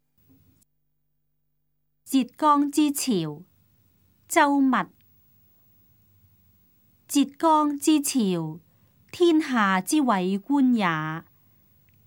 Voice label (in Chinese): cantonese; 浙江之潮; 粵語